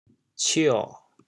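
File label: "cio3", Teochew, chaoyang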